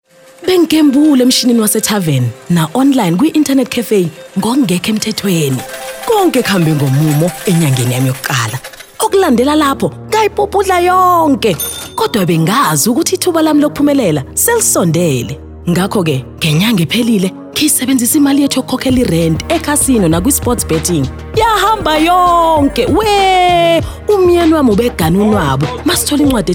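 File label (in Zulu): Zulu, Awareness Campaigns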